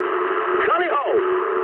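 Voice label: English enemy detected mood low; War Thunder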